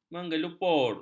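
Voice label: "menggelupur", Malay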